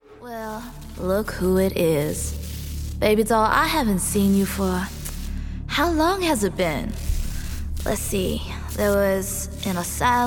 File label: English